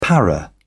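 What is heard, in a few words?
"para", British English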